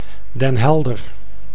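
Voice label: "Den Helder", Dutch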